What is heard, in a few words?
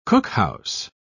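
"cookhouse", British English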